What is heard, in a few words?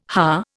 "haar", Indian